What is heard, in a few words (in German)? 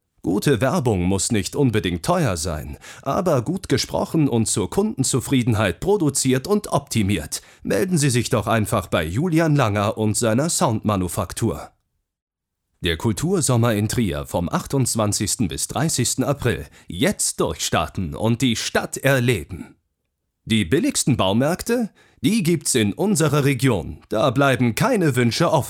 Trailer Werbung